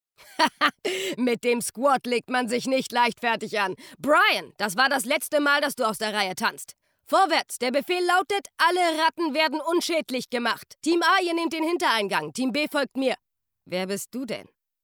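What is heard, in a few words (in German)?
Soldatin Game Hörspiel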